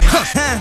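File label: "huh huh", vocal sample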